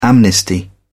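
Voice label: "amnesty", English, British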